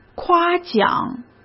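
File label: "夸奖", Mandarin Chinese; hsk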